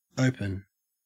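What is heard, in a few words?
"open", Australian English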